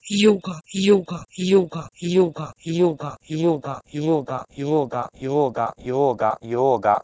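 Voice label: Proto-Indo-European jugo to Sanskrit yoga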